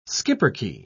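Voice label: "schipperke", British English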